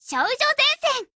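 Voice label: TITLECALL Japanese; VP9; 少女前线:VP9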